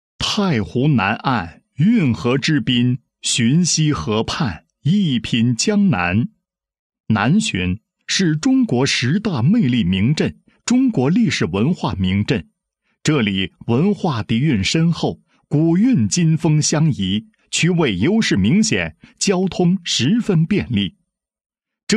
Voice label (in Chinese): 男 公司企业 宣传简介; 星都建材科技有限公司